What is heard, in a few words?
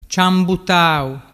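"ciambutau", Vernazzese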